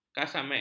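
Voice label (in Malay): "kasemek", Malay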